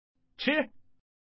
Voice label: "chi", man; mahjong ningxia